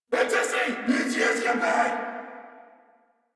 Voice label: Player Spotted Corpus Venus Male, en